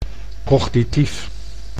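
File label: "cognitief", Dutch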